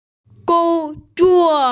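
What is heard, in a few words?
"gong1zuo4", Mandarin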